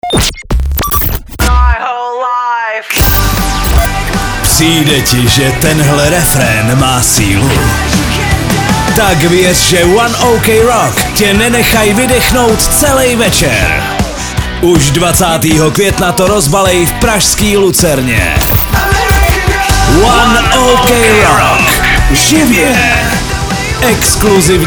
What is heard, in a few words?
promo; evropa2